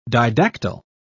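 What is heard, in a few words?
"didactyl", British English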